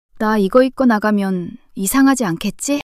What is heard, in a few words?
shy; monologue